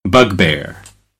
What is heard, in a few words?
"bugbear", American; AmericanBritish